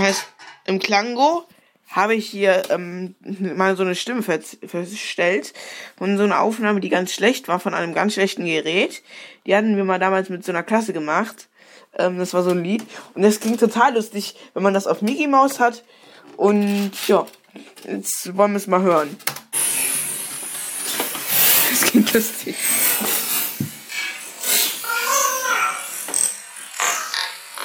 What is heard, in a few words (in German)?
die lustige stimmen